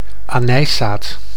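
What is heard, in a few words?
"anijszaad", Dutch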